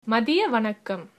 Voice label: good afternoon, tamil